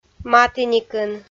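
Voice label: "матіникын", Komi, female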